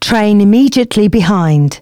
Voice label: announcements, female

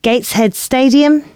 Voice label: announcements, female

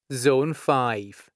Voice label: "Zone 5", announcements male

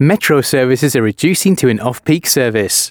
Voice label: announcements, male

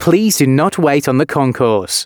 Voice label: announcements, male

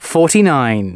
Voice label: announcements male

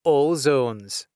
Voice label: All Zones, announcements male

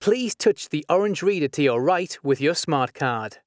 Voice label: touch, announcements male

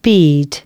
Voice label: announcements, female

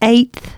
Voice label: announcements female

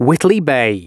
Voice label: announcements male

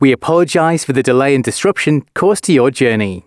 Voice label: announcements, male

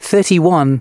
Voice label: announcements male

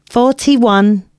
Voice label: announcements female

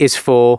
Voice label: announcements male

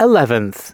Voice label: announcements male

